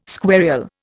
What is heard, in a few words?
"squarial", Indian